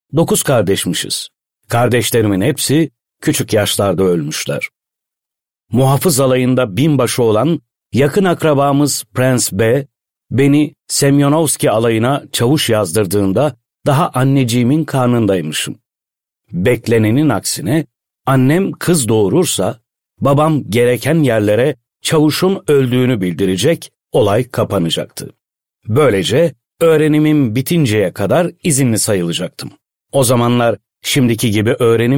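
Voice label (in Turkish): kitap, seslendirme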